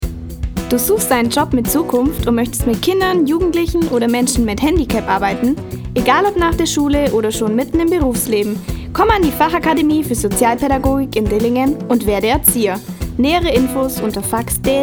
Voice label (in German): Radiospot